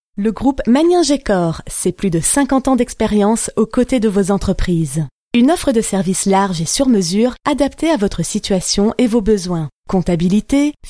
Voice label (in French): Annonce